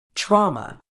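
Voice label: "trauma", British English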